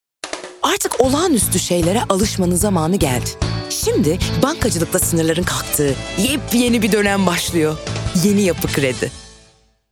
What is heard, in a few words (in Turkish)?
hareketli tempolu